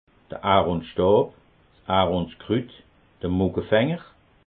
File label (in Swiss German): "aronstab", alsace